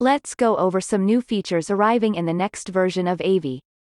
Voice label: Monologue; Avie

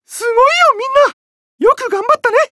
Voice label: Emo Praise

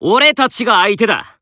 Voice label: Emo Warcry

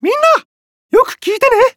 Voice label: Emo Question

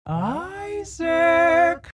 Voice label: Mom Vox; Isaac

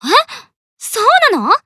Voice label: Emo Surprise; Disney Star Smash; Android, iOS, Mobile

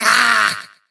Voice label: Troll VO Pain; Skylanders Cloud Patrol; Arcade, iOS, Mobile

